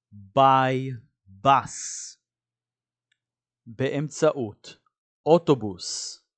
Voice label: "by bus" – "באמצעות אוטובוס"

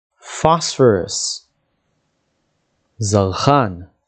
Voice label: "phosphorus" – "זרחן", dictionary

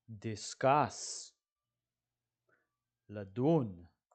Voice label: "discuss" – "לדון"

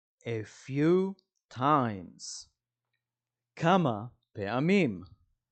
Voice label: "a few times" – "כמה פעמים"

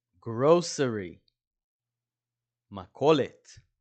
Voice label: "grocery" – "מכולת"

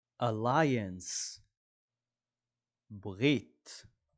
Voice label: "alliance" – "ברית"

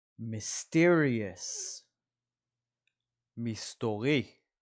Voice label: "mysterious" – "מסתורי"

